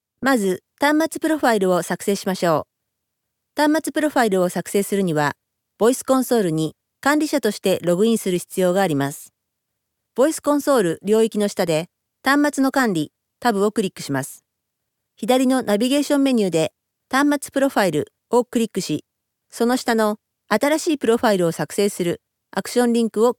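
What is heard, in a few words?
Japanese, training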